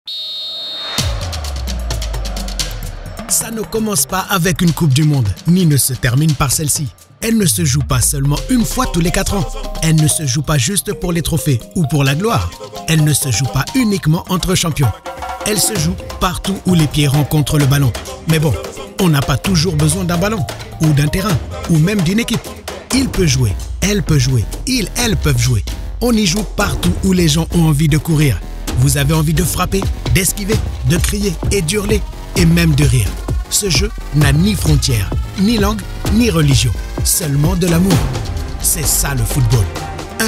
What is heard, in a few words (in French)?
TVS HLX Pub Internet